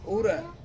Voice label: "ura", Ansus; ten

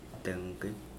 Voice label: light, Mokilese

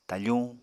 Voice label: "tafuñ", Apatani

